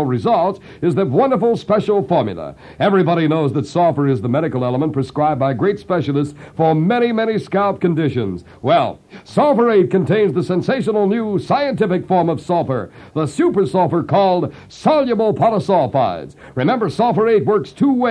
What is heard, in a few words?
commercial hair; 1953; WJW; Moondog